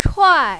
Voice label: "chuai4", mandarin, female